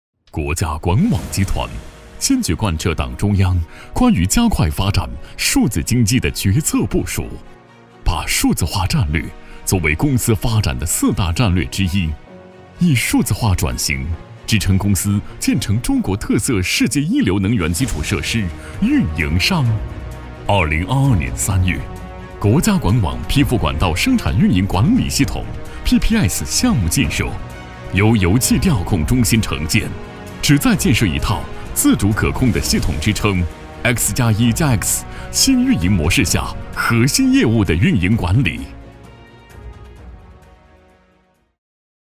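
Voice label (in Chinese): 大气 宣传片, 普通话, 男, 中年; 大气宣传片视频拍摄文稿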